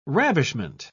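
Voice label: "ravishment", British English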